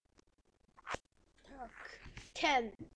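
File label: "can", spoken word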